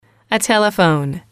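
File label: a Telephone, english, female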